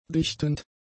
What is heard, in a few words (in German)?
"dichtend", german; adjectives